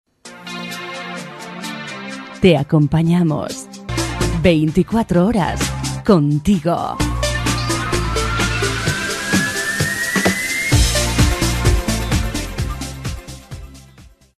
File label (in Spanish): locutora jingle voz; 24horas